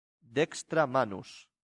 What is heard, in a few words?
"dextra manus", latin; santillana